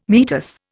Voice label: "meatus", Indian